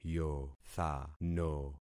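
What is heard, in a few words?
"yothano", concatenated syllables; Affixing experiment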